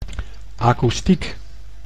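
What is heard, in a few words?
"akoestiek", Dutch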